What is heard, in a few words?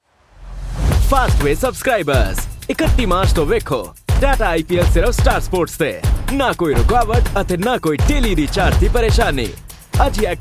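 Commercial, Punjabi; voice demos; GoLocalise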